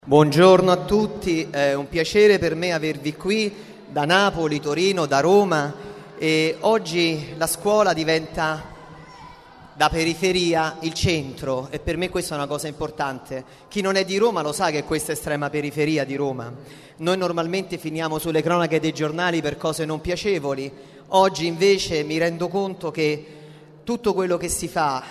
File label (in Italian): Discorso Indroduttivo; Evento Nazionale 7 Aprile; Scuola Melissa Bassi Roma; Discorso Indroduttivo del Preside